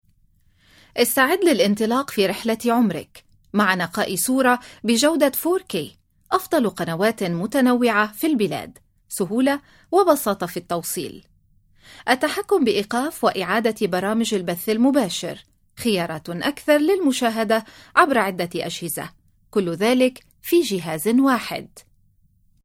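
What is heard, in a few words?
Narration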